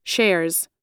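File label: "shares", American English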